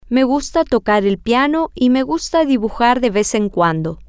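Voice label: I like to play the piano and I like to draw once in a while, Spanish, female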